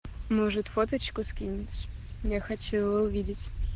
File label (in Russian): jenskie golosovye sms